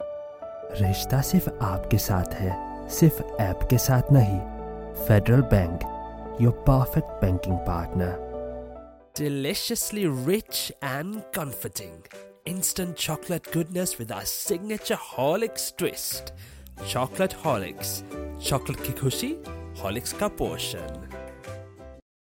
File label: Hindi Showreel